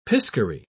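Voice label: "piscary", British English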